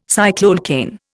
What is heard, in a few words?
"cycloalkane", Indian